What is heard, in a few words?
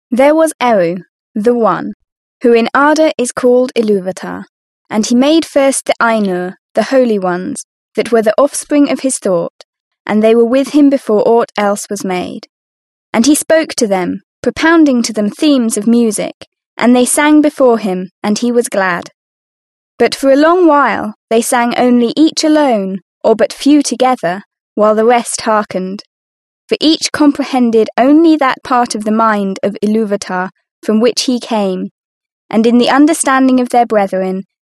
british english female